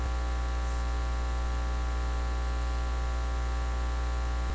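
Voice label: "rub", spoken word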